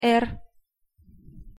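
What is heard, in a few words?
"р", Russian pronunciation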